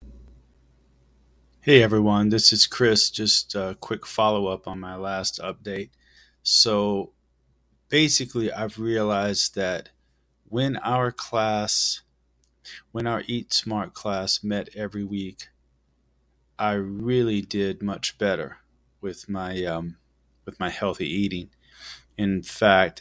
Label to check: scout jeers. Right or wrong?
Wrong